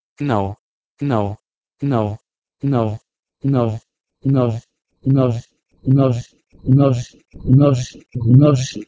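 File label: Proto-Indo-European gneh3 to Ancient Greek gnose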